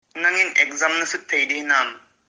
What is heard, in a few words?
Can you write the exam?, Kuki